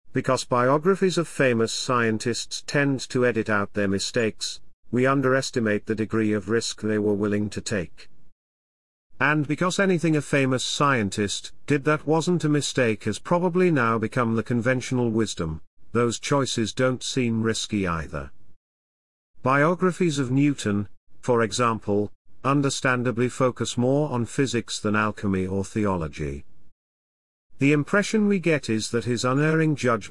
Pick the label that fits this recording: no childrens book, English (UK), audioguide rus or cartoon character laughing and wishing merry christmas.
English (UK)